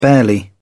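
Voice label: "barely", British English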